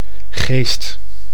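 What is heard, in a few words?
"geest", Dutch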